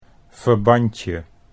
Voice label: "verbandje", Dutch